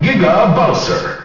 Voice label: announcer; giga bowser; ssbm